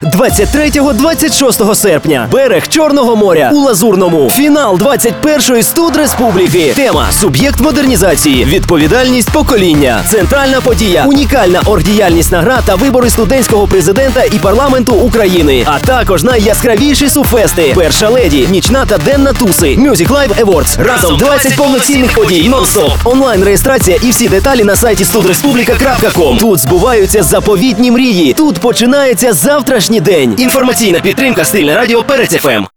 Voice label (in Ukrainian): Аудіо ролик для реклами